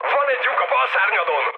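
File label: Hungarian left wing mood high, speech; War Thunder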